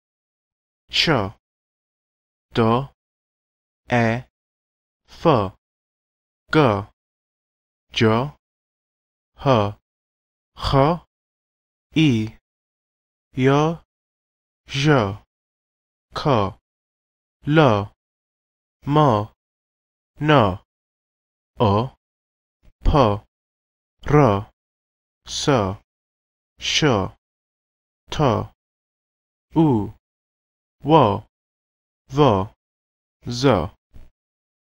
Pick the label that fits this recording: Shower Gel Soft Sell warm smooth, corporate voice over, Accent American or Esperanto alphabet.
Esperanto alphabet